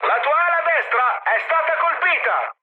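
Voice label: Italian right wing mood high, speech; War Thunder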